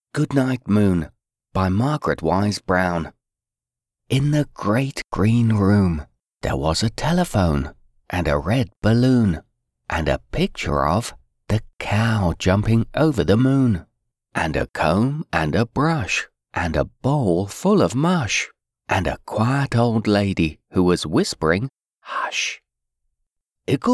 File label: Audiobooks Children